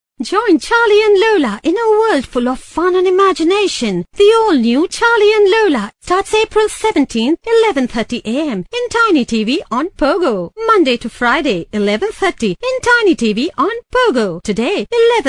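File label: English Indian Female Old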